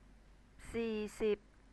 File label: "see sib", Thai